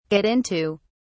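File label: "get into", British English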